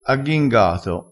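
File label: "agghingato", Italian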